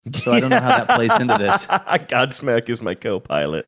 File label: laughing saying god smack is my copilot